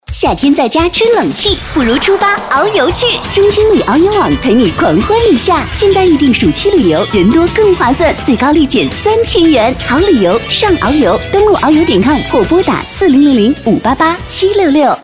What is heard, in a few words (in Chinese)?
女 年轻 活泼; 中青旅